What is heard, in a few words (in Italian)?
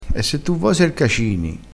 "cacini", crespinese